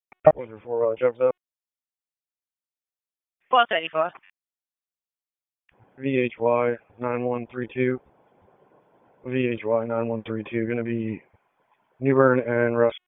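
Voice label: Police Southeast District Dispatch, p25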